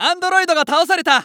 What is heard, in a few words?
Announce New Boss Die, JPN; Eternal Return